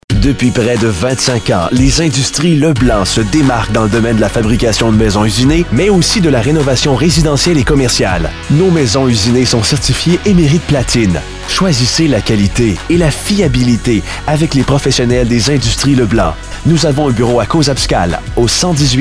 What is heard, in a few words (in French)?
Publicité radio; Causapscal